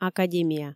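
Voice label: "академия", Komi, female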